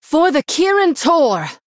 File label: Female Human Attack; en